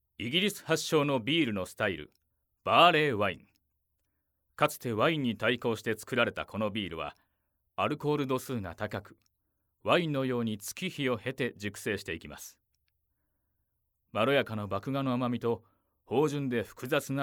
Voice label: narration